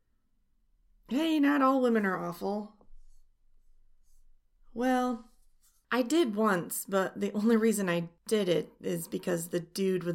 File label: [F4M] [wrong number]; 4 Calling Birds; meet cute; awkward; adorkable; nervous; giggles